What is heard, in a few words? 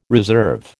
"reserve", American